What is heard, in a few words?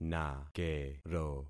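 "nakero", concatenated syllables; Affixing experiment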